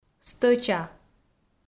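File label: "стӧча", Komi-Zyrian, female; audio dict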